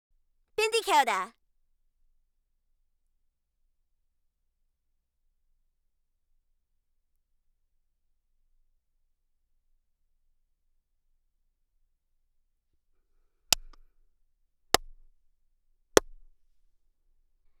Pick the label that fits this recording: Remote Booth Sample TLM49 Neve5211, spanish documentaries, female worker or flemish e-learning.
Remote Booth Sample TLM49 Neve5211